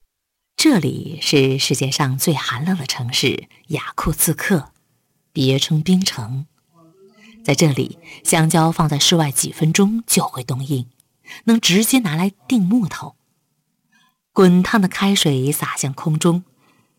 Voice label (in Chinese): 女 纪录片 自然解说 雅库茨克